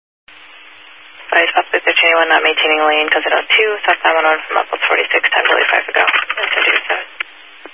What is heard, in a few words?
Highway Patrol, scanner